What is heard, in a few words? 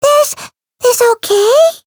chant